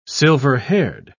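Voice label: "silver-haired", British English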